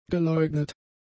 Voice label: "geleugnet", German; adjectives